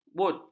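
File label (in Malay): "but", Malay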